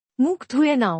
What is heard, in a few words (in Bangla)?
Wash your face. Bangla; Time and Routine